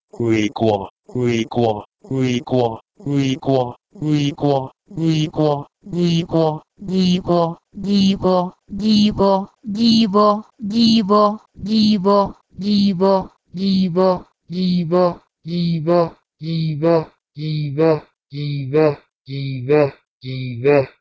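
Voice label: Proto-Indo-European gwigwh3o to Persian jiive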